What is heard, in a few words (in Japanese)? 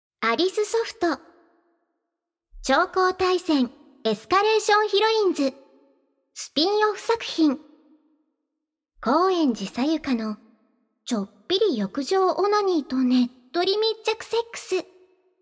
タイトルコール; ボイスのみ